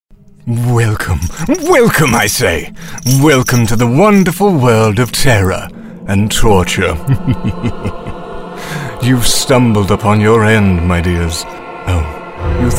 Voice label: Character